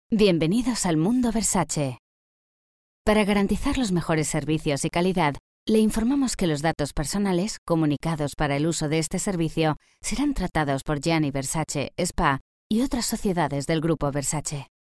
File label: CALL CENTER Europe Spain; VERSACE